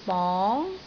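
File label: "bong2", Cantonese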